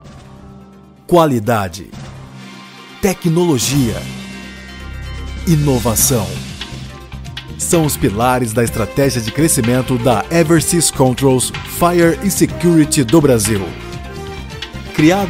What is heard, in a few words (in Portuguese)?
Vídeo Institucional Locutor; EverSys